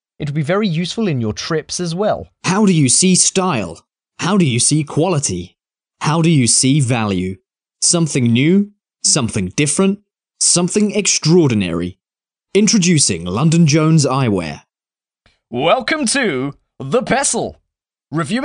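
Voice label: English, male; lektoring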